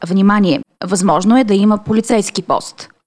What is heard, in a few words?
Mobile Camera; mobilenavigator 2023